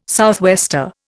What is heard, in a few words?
"southwester", Indian